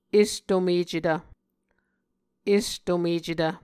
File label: "estomecetv", Muscogee